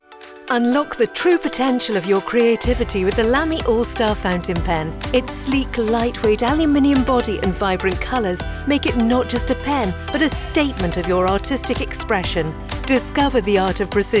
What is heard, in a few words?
Commercial, London based female voice actor